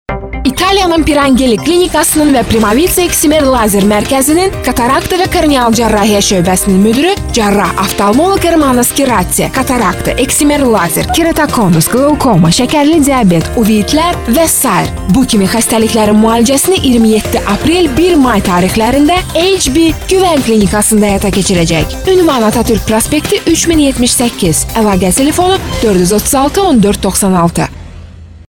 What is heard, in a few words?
azerbaijani female